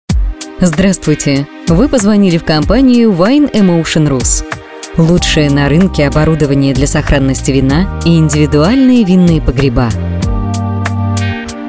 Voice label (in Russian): Автоответчик для компании; voices female; ВайнэмоушенРус